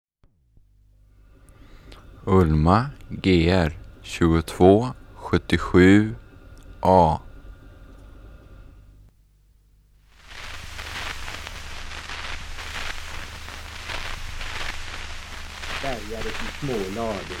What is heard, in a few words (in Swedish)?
Hede, dialekter, sagor; Folkminnen